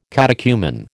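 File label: "catechumen", American English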